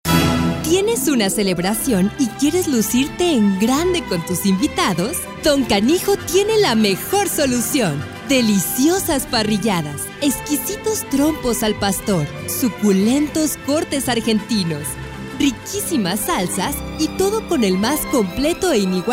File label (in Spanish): perifoneo; Don canijo